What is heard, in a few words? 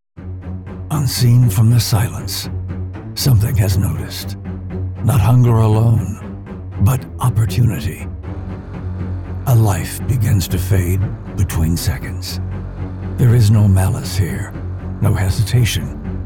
narr